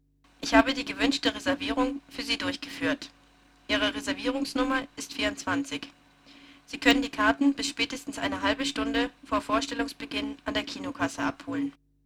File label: Sine slow; SmartKom; Prototypen Stimme